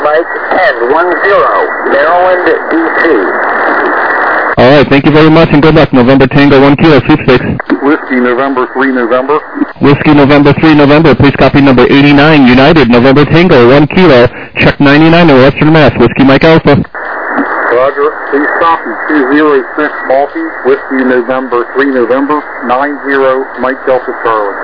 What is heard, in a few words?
SSB LSB 7MHz